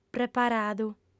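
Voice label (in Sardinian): "preparadu", female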